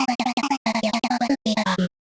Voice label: medium clear gruff; voice archetypes; voxel audio preview

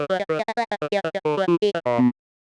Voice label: C tikka, consonant styles, voice samples; voxel audio preview

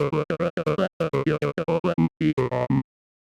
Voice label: big gravelly gruff after; voice archetypes; voxel audio preview

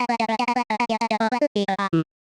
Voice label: clicky, voice archetypes timbre; voxel audio preview

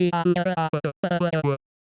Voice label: quiet timid sage, medium clear sage; voxel audio preview

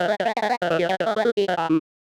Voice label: medium clear jolly; voice archetypes; voxel audio preview; gravel

